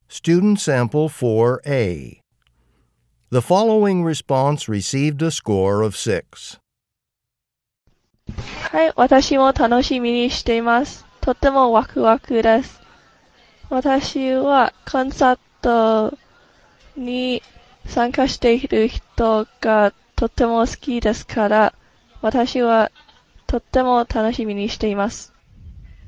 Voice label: japanese conversation